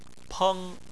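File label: "peng" first tone, Chinese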